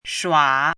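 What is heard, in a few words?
"shua3" Mandarin Chinese, dipping tone; chinese-voice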